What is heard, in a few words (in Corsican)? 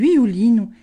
“viulinu”, Gravona (sud)